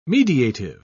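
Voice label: "mediative", English, British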